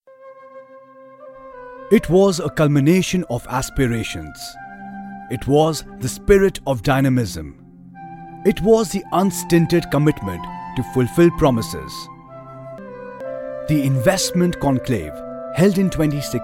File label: Odisha Eng